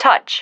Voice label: "touch", female